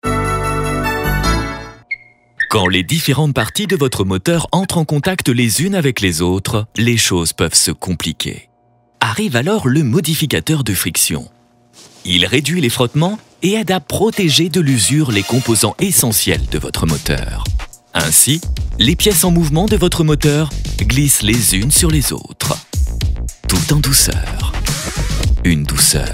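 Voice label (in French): flegmatique decale, voix off publicite, homme; ESSO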